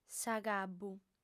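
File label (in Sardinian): "s'agabbu", female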